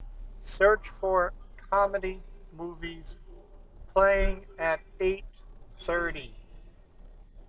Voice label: dual mic in car; micarray in-car